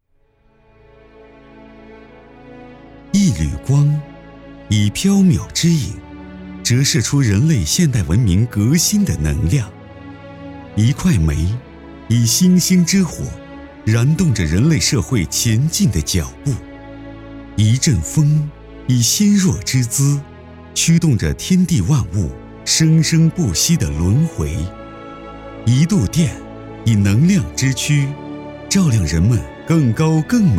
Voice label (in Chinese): 男 宣传片 电力宣传片; 国网电力宣传片